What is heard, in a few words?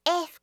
"f", alphabet